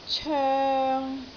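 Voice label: "coeng3", Cantonese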